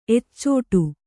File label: "ಎಚ್ಚಾಡು", Kannada